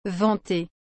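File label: "venter", French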